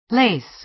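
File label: "lace", American English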